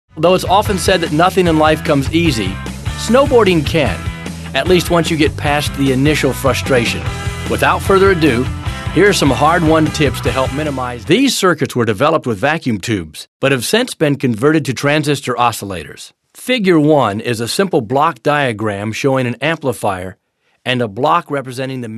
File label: narration